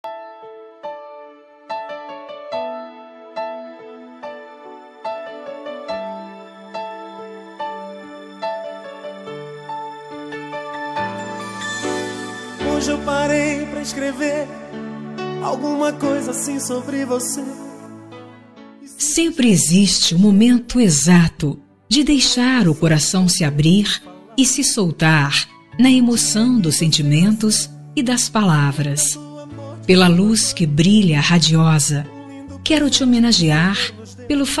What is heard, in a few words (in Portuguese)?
filha fem, Homenagem para Filha – Voz Feminina; Linda